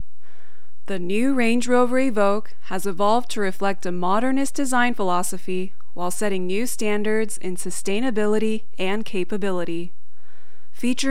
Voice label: Narration English